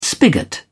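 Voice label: "spigot", British English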